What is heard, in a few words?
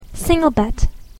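"single bed", English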